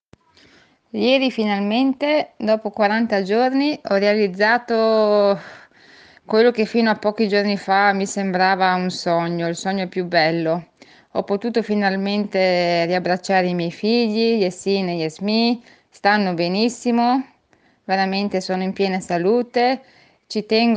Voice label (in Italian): messaggio vocale